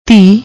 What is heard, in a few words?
"dhee", Punjabi